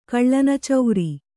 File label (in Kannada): "ಕಳ್ಳನಚೌರಿ", Kannada